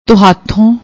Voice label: "tuhaathon", Punjabi; ਤੁਹਾਥੋਂ